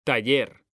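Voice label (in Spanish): "taller", Spanish; mekanta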